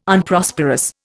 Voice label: "Unprosperous", Indian